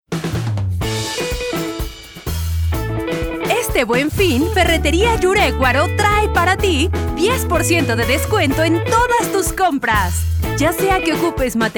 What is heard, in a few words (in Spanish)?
perifoneo ferreteria, locutora; yurecuaro